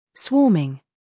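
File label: "humor", British; swarming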